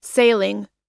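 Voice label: "sailing", US English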